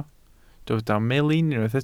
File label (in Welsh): "melin", Welsh